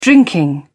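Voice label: "drinking", British English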